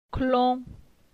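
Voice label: "Clon", French; phonetique; la syllabe on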